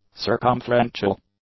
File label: "circumferential", English; adjectives